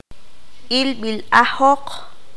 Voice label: "Ojeado", Q'anjob'al

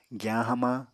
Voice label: "giyañ hamañ", Apatani

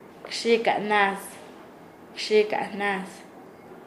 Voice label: Nasa Yuwe; bromeador; paez

